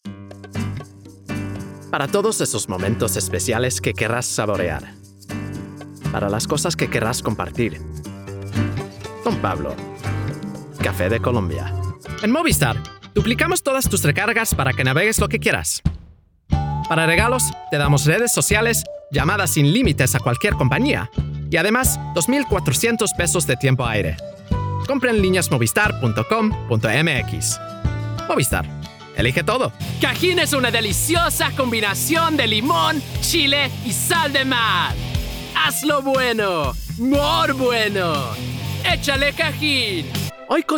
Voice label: Spanish